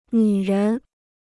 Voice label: "女人", Chinese

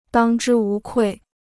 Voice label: "当之无愧", Chinese

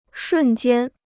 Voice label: "瞬间", Chinese

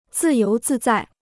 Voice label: "自由自在", Chinese

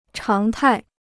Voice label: "常态", Chinese

